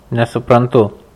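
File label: "Nesuprantu", Lithuanian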